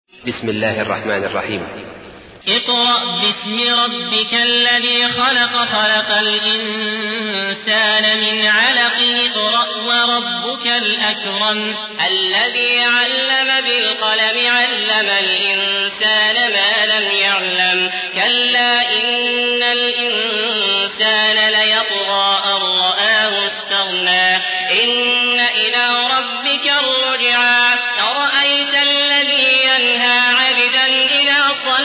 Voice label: ترتیل; عبدالعزیز الأحمد; سوره علق

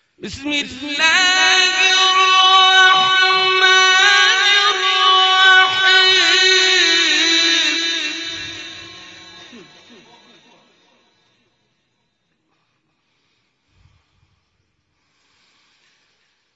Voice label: تلاوت زیبای از سوره ضحی

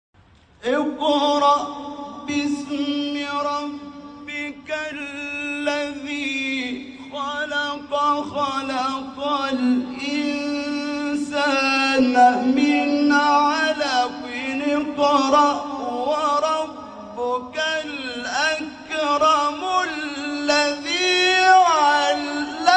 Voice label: تلاوت سوره مبارکه علق

